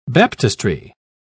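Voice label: "baptistry", British English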